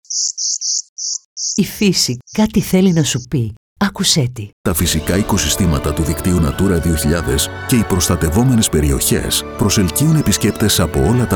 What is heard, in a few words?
Radio Spot; Pandoteira; Tourismos